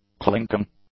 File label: "clinking", English; adjectives